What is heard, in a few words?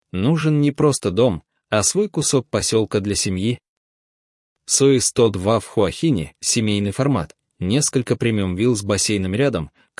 voiceover explainer